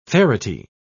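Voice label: "ferity", English, British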